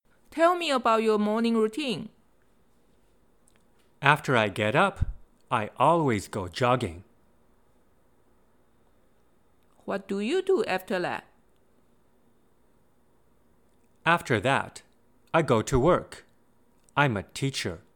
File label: morning routine dialog